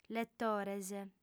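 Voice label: "letores", female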